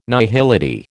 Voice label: "nihility", American

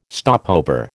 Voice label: "stopover", American

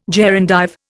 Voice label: "gerundive", Indian

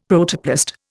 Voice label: "Protoplast", Indian; Dictionary

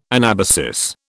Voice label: "anabasis", British

